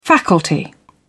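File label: "faculty", British English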